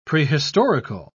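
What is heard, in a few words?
"prehistorical", English, British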